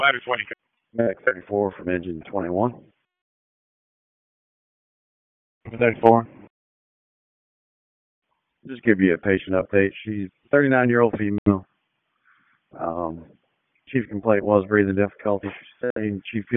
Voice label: PS TAC, p25

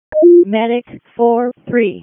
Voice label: WC EMS ALERT, p25; wake

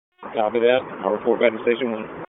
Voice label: FIRE OPS, p25; wake

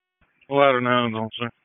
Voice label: FIRE OPS, p25; wake p25

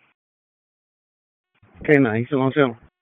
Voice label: Police Northeast District Disp, p25

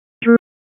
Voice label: Police Southwest District Tac 1; Raleigh; p25; wake

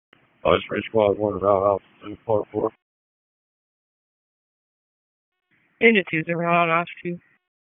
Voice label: FIRE OPS, p25; wake

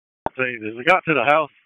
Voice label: FIRE OPS, p25; wake

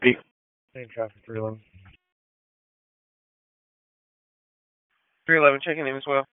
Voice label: Police Northeast District Disp, p25; Wake

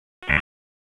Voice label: Police Northwest District Tac 1

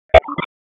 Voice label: Police North District Tac 1, p25; Raleigh; wake

